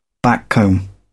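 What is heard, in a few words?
"backcomb", British English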